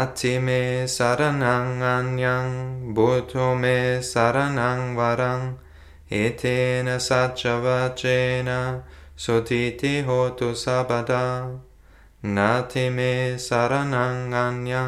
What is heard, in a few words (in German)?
vergebung deutsch